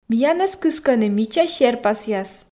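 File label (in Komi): миянӧс кыскӧны мича серпасъяс, Komi, female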